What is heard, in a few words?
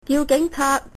police, cantonese